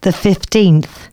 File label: announcements female